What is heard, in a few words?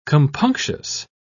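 "compunctious", English, British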